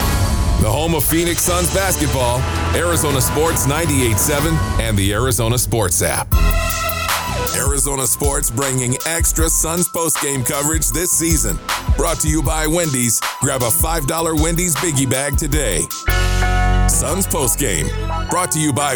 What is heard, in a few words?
Imaging; Phoenix Suns